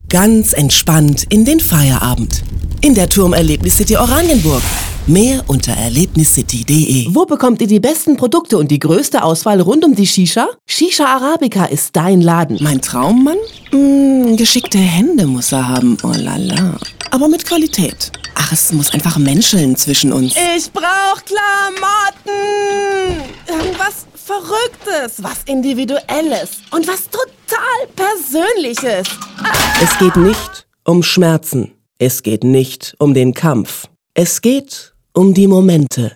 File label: Commercials Mix